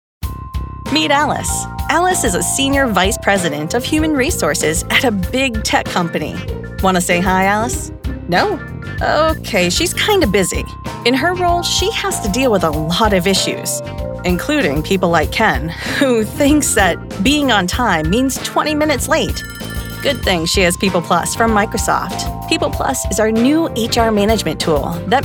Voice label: EXPLAINER